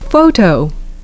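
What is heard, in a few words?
"photo", English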